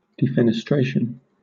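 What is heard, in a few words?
"defenestration", British English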